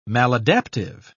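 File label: "maladaptive", British English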